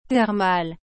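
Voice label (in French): "thermale", French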